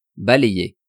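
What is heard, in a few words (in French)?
"balayer", French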